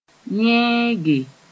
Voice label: "yẽege", Mooré

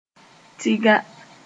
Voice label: "tiga", Moore; pitch-dark

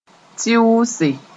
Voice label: "tɩʋʋse", moore

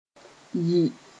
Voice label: "yɩ", Mooré

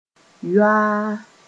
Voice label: "yoaa", Mooré; perplexed

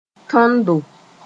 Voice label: "tõndo", Mooré

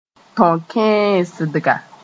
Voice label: "tõ-kẽesdga", Mooré; investing

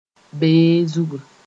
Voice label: "bee zugu", Mooré

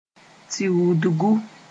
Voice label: "tiuudgu", Moore

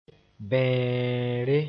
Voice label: "bẽere", Mooré

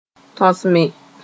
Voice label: "tõsme", moore

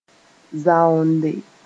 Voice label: "zaoonde", moore

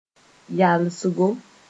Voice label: "yalsgo", Moore

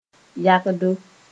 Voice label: "yagdo", Moore

